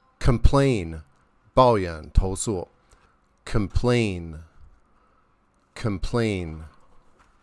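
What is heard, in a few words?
"complain", English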